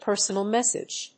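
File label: "personal message", English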